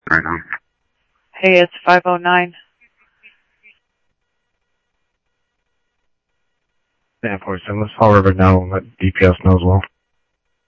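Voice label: Mesa Police Department, scanner audio trunk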